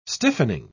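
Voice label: "stiffening", British English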